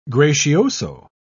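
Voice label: "gracioso", English, British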